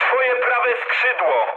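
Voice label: right wing, mood low, Polish speech; War Thunder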